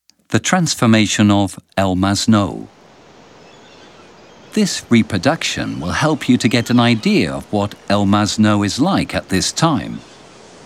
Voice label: audioguia english